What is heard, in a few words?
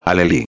"alheli", espanhol